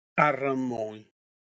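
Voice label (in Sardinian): "Arramoni", Sardinian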